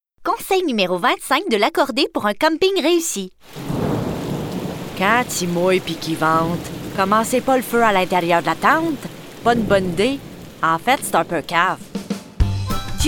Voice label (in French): pub voix multiples; la cordee